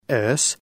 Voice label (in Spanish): La letra S en inglés